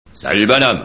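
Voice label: "bonhomme", French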